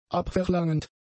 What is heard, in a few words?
"abverlangend", german; adjectives